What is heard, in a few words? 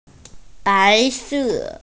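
"bai2se4", Mandarin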